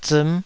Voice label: "ziim5", taiwanese